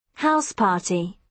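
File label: "house party", British English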